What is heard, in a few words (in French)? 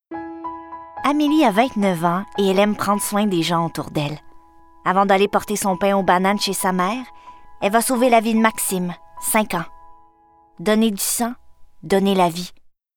pub donnez du sang